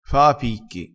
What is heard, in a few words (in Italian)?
"fa a picchi", crespinese